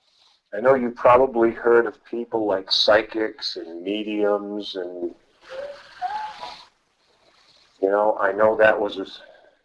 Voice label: Bedroom Response - Female Drink up